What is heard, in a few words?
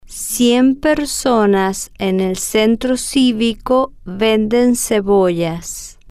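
cien personas en el centro, Spanish, female, slow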